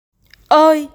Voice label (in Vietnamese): "Ơi", Tiếng Việt